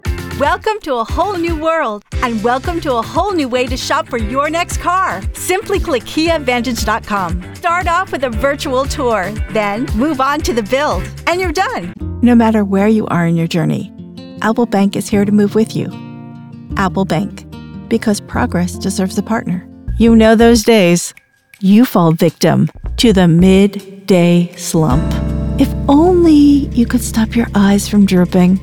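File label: Commercial, Professional Female Voice Actor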